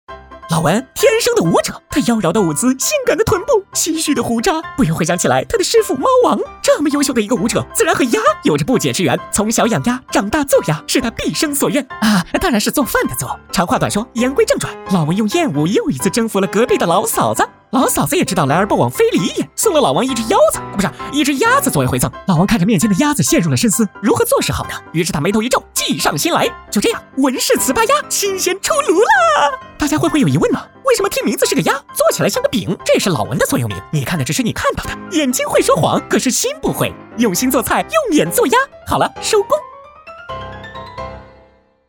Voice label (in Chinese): 男 搞怪风格; 老文做鸭